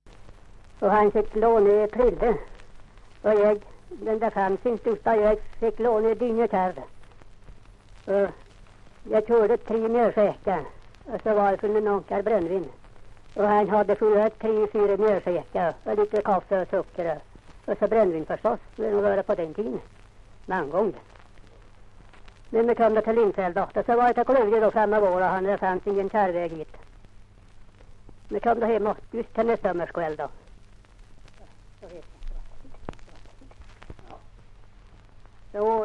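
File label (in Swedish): Linsäll Hälsingland, dialekter; svenska sagor; Folkminnen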